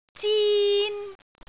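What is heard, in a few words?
"zin1", Cantonese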